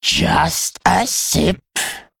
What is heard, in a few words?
Female Worgen Attack